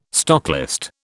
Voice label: "stocklist", British